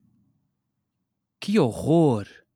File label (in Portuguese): "que horror!", portuguese